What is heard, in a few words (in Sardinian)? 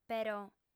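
"però", Sardinian, female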